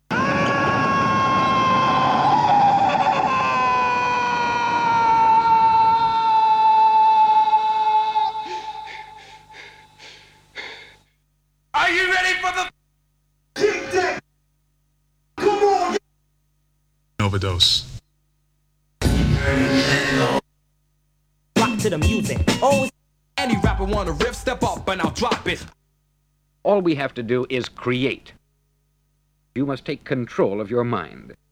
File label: Vocal Drops; Kniteforce; 1000 Samples Volume 1